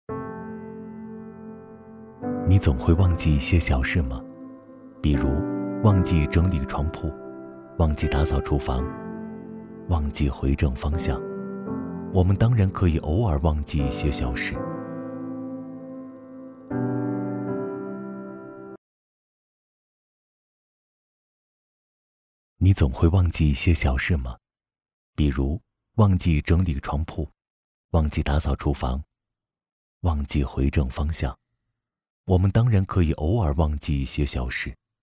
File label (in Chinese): 男 公益广告; 消防安全